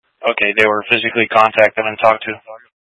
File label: Mutual Aid-Intersystem Interop B, scanner